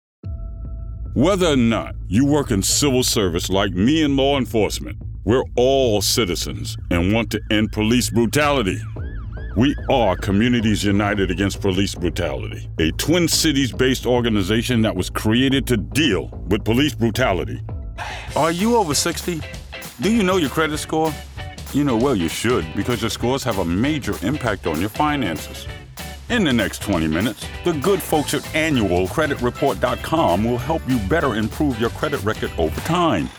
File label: narration; narration demo